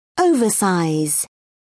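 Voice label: "oversize", English, British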